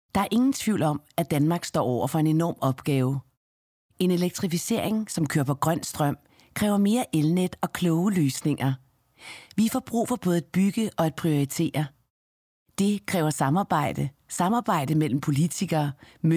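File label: corporate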